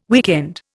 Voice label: "weekend", Indian